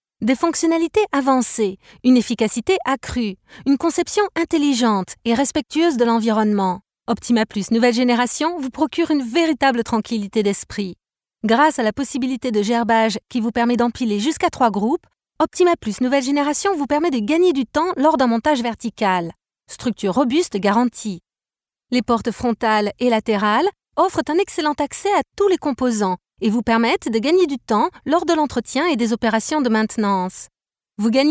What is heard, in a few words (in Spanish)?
narración francés